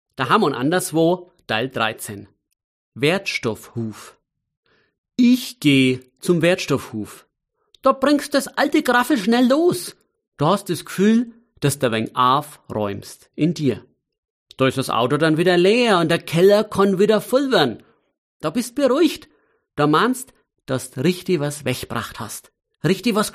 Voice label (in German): Mundart